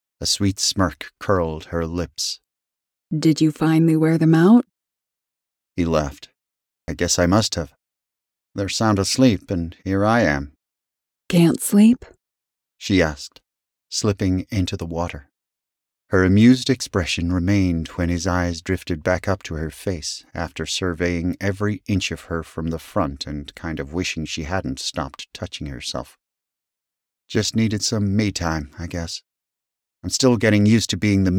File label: American accent, narrators; Duet Narration